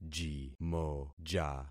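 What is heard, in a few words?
"jimoja", concatenated syllables; Affixing experiment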